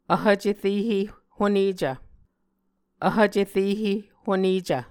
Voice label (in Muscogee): "vhv cerehe honecv", Muscogee